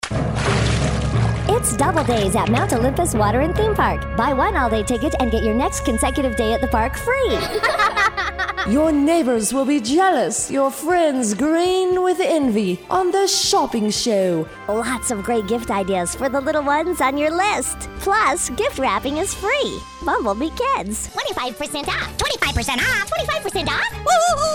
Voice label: Character Cartoon